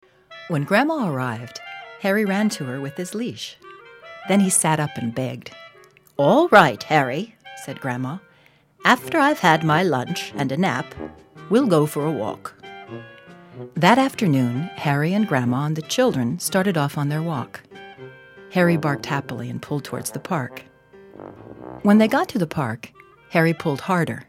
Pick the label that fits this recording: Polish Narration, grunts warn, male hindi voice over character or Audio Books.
Audio Books